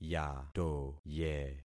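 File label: "yatoye", concatenated syllables; Affixing experiment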